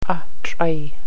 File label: Wind, Gwich'in; Ahtr'aii